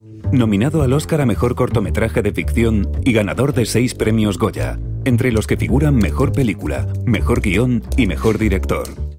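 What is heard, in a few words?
Spanish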